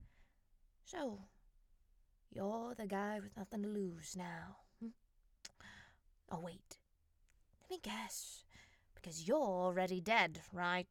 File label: transatlantic accent